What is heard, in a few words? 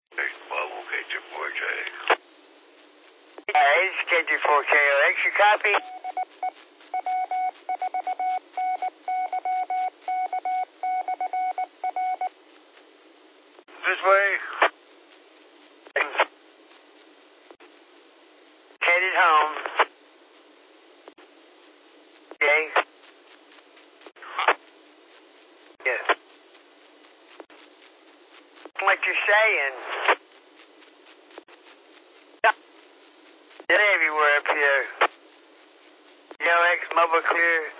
qso rec simplex logic